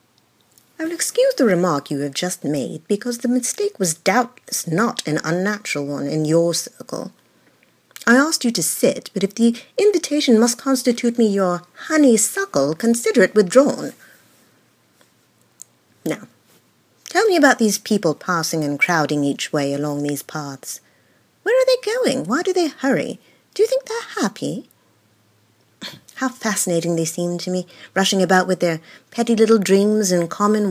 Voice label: British monologue